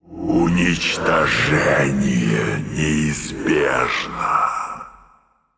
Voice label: Male Elemental Attack; Аномалус; Hearthstone: датамайн обновления 5.0